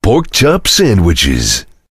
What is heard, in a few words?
cheer; svencoop; voice commands; Duke